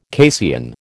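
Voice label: "casein", American English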